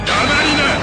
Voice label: objection, jp; blackquill